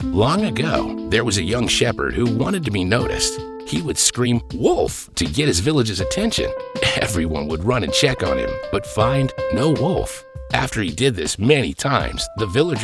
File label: Narration